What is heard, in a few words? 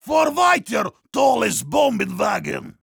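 Heavy cart moving forward offense, german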